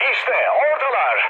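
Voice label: Turkish enemy detected, mood med, speech; War Thunder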